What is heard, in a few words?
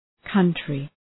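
"country", English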